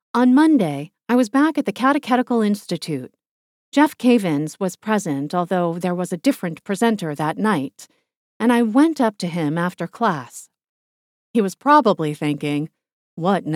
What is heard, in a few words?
Passionate Religious Memoir